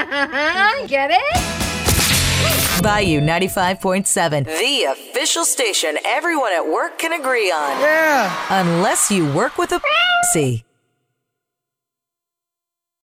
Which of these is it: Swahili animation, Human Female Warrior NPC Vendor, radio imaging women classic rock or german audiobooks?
radio imaging women classic rock